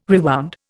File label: "rewound", Indian